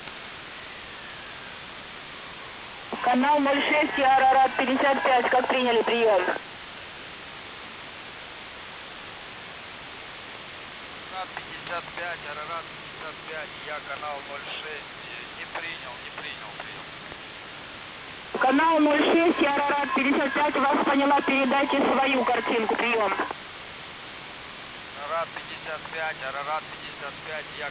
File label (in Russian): 5840khz mcs ukraini fragment pereklicki pered